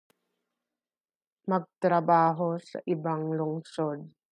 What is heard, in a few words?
Tagalog